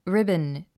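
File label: "ribbon", English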